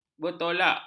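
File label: "bertolak", Malay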